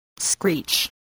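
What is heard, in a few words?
"screech", English word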